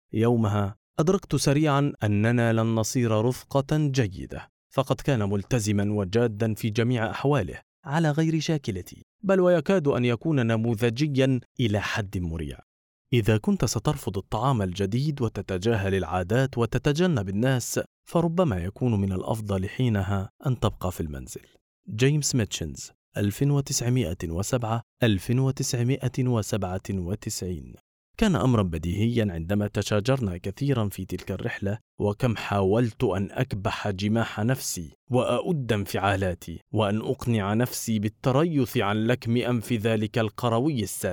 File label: audio book sample